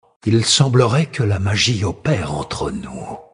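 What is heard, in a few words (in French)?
Male Flirt, voix françaises; Sacrenuit; Magie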